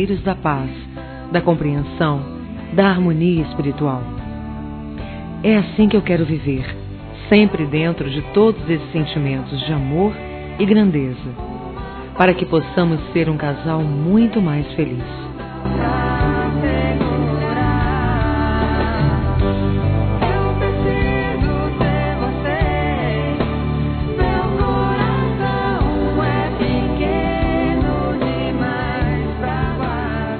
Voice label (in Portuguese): ROMANTICA F, Páscoa, fem; Telemensagens